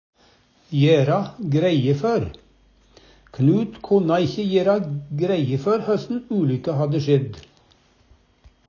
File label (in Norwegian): jera greie for, Numedalsmål; forklare